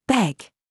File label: DRESS TRAP, minimal pairs